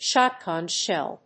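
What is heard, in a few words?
"shotgun shell", English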